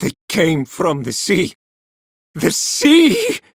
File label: Villagers Male, Cetus Invasion